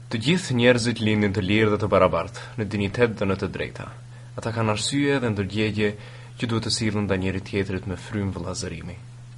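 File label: Albanian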